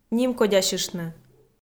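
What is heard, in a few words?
"нимкодсьыштны", Komi, female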